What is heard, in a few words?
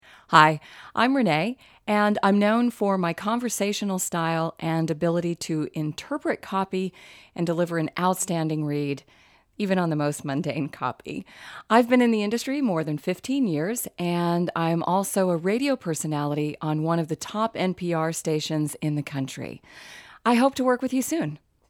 Natural Voice